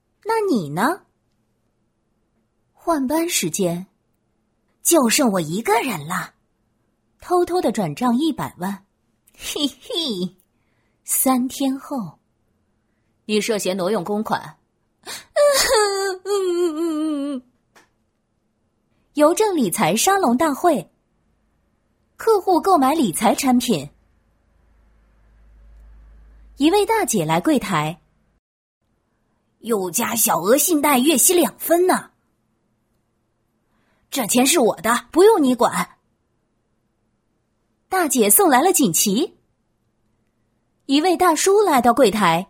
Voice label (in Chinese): 女 角色 多角色